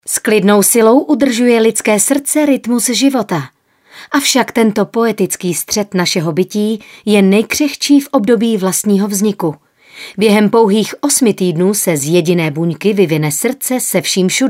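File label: Female Czech documentary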